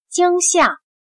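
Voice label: "惊吓", Chinese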